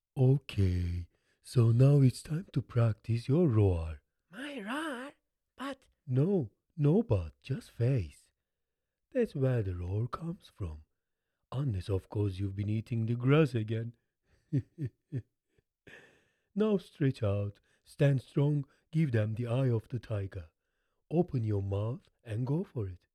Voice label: Cartoon